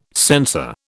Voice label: "sensor", British